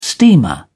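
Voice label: "steamer", British English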